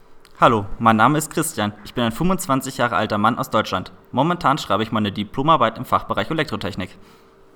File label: GERMAN WAVE